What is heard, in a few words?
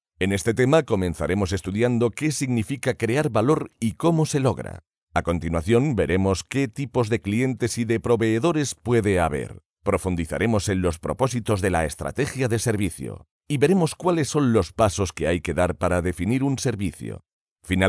e-learning